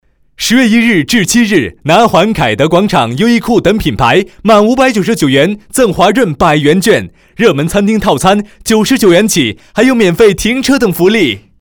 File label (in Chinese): 促销广告 轻松欢快; 凯德广场